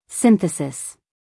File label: "synthesis", us female; everyone-can-use-english